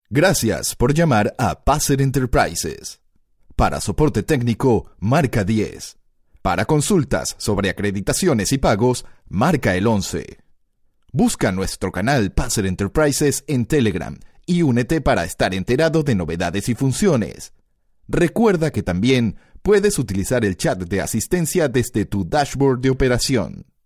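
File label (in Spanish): corporativo, Venezuela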